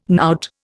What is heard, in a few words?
"knout", Indian